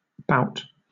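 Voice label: "bout", British English; pronunciations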